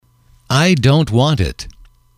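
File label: "I dont want it", English, male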